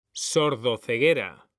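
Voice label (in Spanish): "sordoceguera", male; mekanta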